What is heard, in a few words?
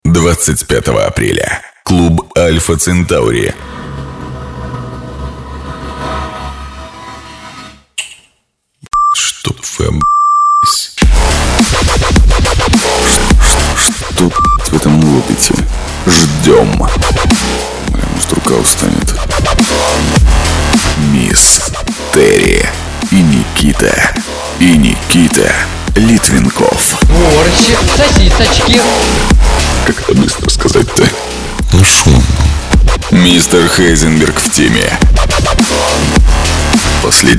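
russian male commercial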